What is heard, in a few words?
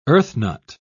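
"earthnut", British English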